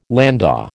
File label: "landau", American